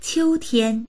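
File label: "qiu1tian1", Chinese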